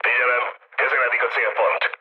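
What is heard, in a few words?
Hungarian near waypoint mood med, speech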